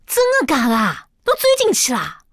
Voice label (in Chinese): 偷听对话; c02 6偷听对话 李氏